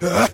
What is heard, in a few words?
"huh huh" male; ooh ahh; heh huh